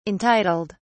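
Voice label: "entitled", English, British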